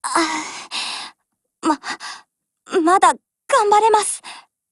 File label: BREAK JP; MP40